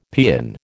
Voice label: "paean", American

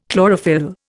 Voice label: "chlorophyll", Indian

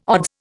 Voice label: "odds", Indian

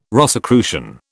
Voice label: "Rosicrucian", British

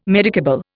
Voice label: "medicable", Indian

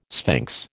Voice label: "sphinx", American

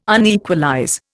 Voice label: "unequalize", Indian

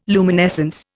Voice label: "luminescence", Indian

